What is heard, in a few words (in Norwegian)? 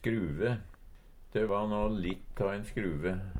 "skruve", Numedalsmål; ein lur person; Uttrykk